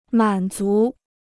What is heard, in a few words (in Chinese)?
"满足", Chinese